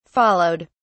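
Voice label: "followed", British English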